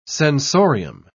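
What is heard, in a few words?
"sensorium", British English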